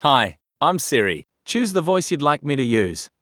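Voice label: Australian English; iOS 26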